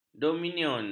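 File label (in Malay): "dominion", Malay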